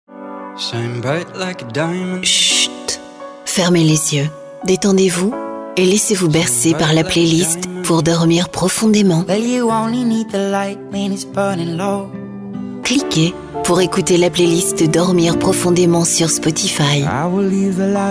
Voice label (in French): DEMO PUB